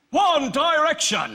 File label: Anouncer